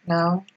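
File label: "naw", Nigerian Pidgin, female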